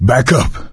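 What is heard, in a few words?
back up quiet, voice, male; Left 4 Dead 2; coach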